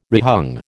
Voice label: "rehung", American